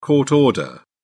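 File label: "court order", British English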